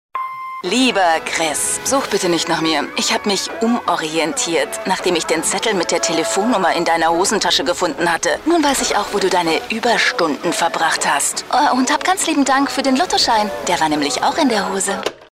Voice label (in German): Aggressiv